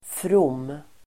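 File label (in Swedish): "from", Swedish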